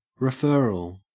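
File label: "referral", Australian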